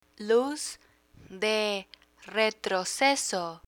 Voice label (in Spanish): "luz de retroceso", Spanish